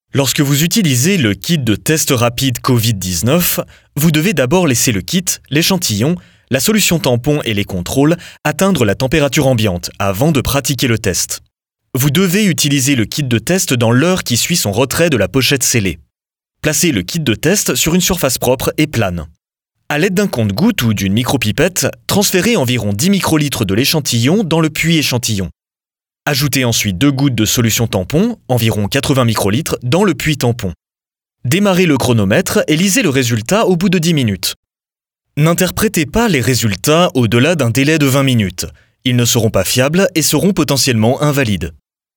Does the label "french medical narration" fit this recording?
Yes